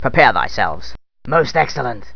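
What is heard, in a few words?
male elf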